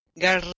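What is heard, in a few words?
"gar", Proto-Indo-European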